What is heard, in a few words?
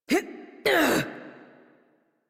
Horse Mount Adult; Warframe; Duviri